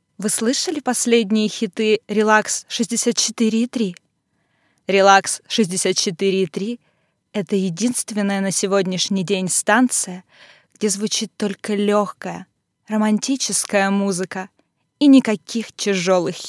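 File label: Russian, female, Corporate, voice over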